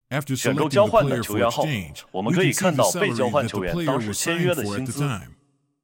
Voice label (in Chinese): 选中交换的球员后, 引导对话男; nba